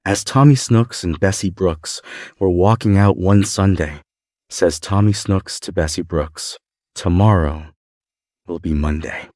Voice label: male us; Tommy Snooks and Bessie Brooks

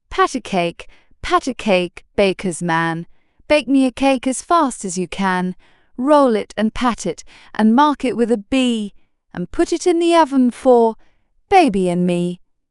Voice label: fem brit; Pat a Cake Pat a Cake

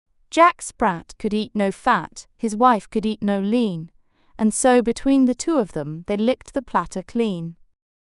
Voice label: fem brit; Jack Sprat

